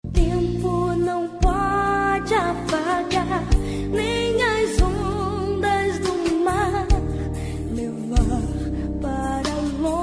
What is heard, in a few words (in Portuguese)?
dia dos namorados gospel namorada, evangélica voz masculina